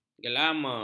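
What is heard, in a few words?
"gelama", Malay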